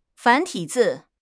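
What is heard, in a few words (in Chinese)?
"繁体字", Chinese; HSK6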